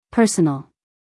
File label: "personal", US female